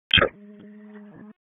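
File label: FIRE Operations, p25; FIRE OPS 1; wake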